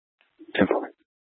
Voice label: Dispatch; Scottsdale